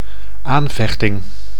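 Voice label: "aanvechting", Dutch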